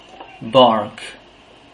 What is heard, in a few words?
"bark", English